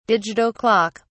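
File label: "digital clock", en, British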